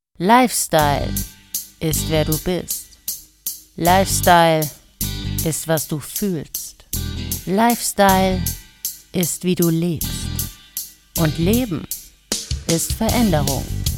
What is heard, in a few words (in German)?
Werbung Automobile